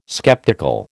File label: "skeptical", American